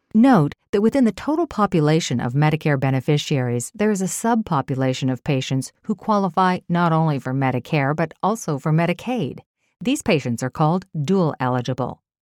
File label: Benefits Knowledgeable Professional